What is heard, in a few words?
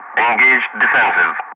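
"engaged defensive", English; Ka-50; Wingman Messages; DCS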